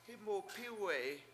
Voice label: willow fell, Washo

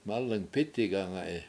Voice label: mAlang pIti7 gAnga7i acorn is ripe, Washo

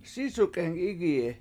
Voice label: "sIIsuk7eng 7IIgiyi", washo

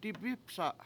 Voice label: "dibIpsa7" I pick it up, Washo

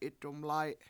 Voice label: "7itdumlAy7", Washo; towel

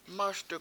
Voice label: "Almost", Washo

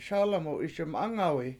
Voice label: "The Girl Sings Well", Washo; SAwlamhu 7iS1mAngawi

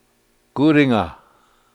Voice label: "gUUdinga", Washo

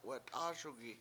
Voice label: "wat7ASugi", Washo; it$s blowing in

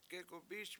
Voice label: he walked, washo; gegumbIS

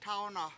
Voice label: "tAwna7", Washo; in town

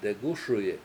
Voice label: "degUSuyi", Washo; pet

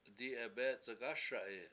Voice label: "dI7ebEEc71k ASa7i" Ill choke him, Washo

